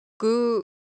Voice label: Japanese, Ideo Phones; Shape